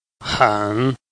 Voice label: "hen3", Chinese